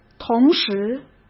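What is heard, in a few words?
"同时", Mandarin Chinese; hsk; chinese-flashcards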